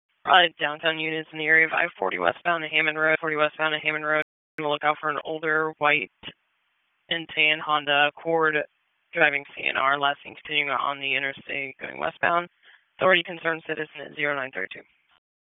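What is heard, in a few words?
Police Dispatch; Raleigh; Southeast District; wake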